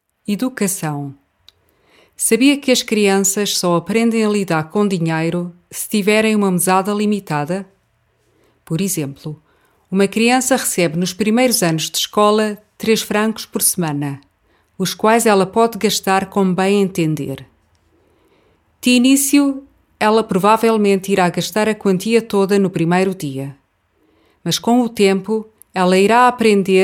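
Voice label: Portuguese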